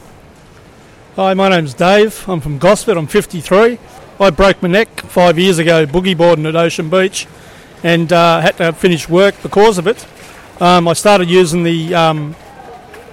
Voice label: BROKEN NECK TESTIMONIAL